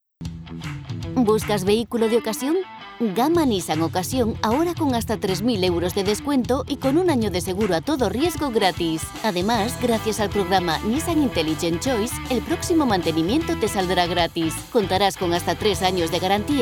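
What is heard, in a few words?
comercial, Spanish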